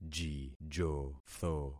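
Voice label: "jijotho", concatenated syllables; Affixing experiment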